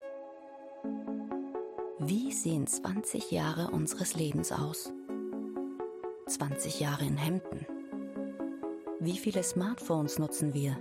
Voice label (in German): Imagefilm Nachhaltigkeit; Miele Kampagne 2021